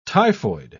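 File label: "typhoid", British English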